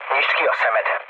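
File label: player friendly fire, mood med, Hungarian; speech; War Thunder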